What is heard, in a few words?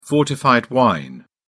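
"fortified wine", English, British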